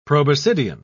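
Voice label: "proboscidean", British English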